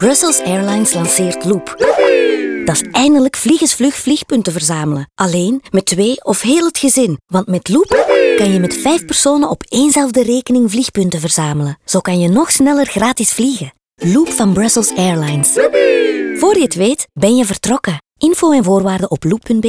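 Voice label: radio loop nl intro music; snb; pooling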